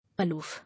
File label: "Aloof", English